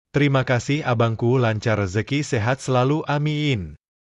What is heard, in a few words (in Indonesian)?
terimakasih abangkuh indonesia man